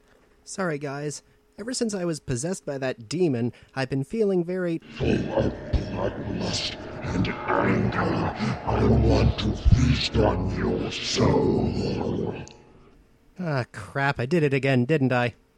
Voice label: Demon Voice Test

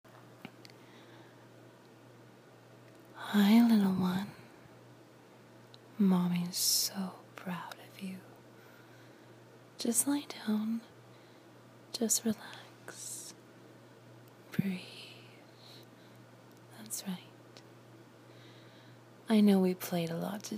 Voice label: [F4M] [whispers][sweet]; aftercare; cuddles; L-bomb; MDlittle boy; pet names; good boy; improv

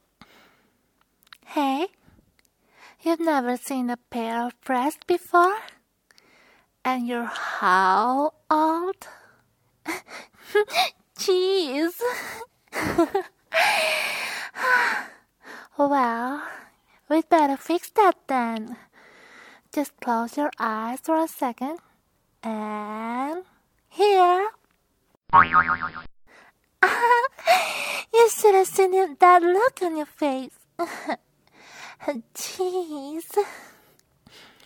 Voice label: Giggles, Stuttering; F4M; BOING!; You Pervert~; Creative Curse Words

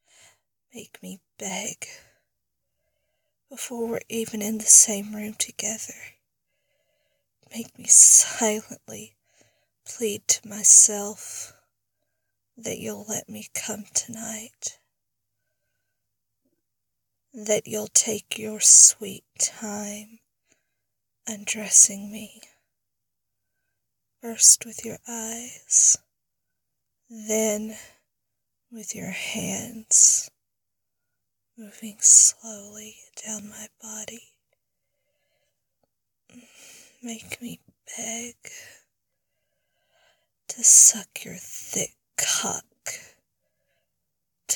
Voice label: F4M, Erotic Poetry, Sensual, Rough; Make Me Beg; Erotic Poetry Fill for usumbrs

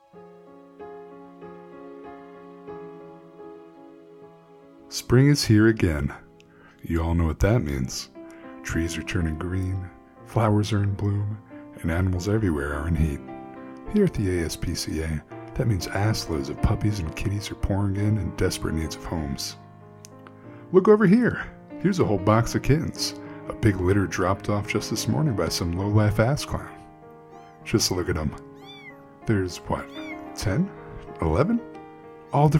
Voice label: commercial; ASPCA commercial (ep1)

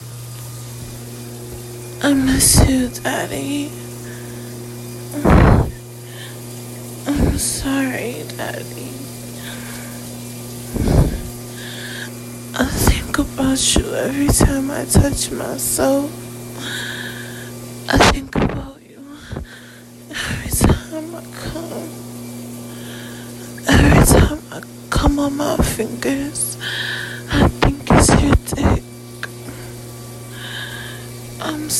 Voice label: Drunk Shower Play; Erotic audio

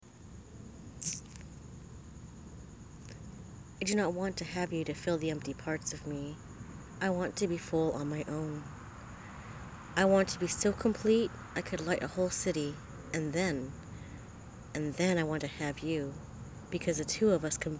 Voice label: poem; rupi kaur

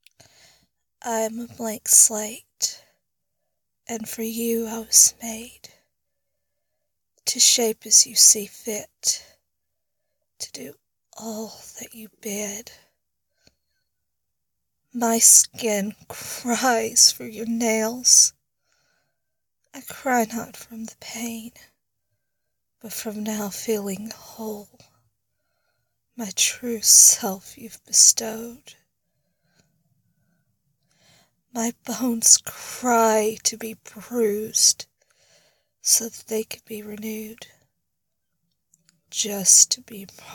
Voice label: F4A Erotic Poetry submissive; Canvas; Erotic Poetry fill for uso sweet like anise; masochistic; deep fulfillment; southern accent

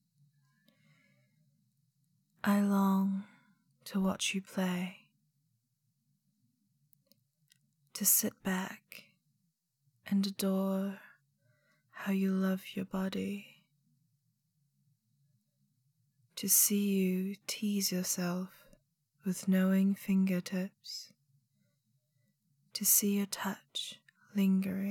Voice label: Wildfire Poem, F

